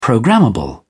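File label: "programmable", British English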